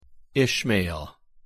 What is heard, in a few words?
pronunciation en "Ishmael"